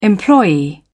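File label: "employee", British English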